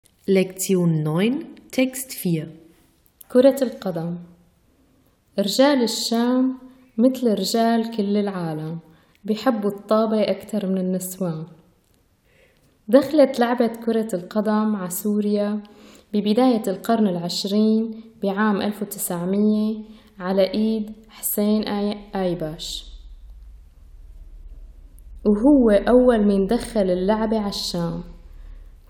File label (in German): Fussball, syrisch-arabischer Dialekt; kuret əl-qadam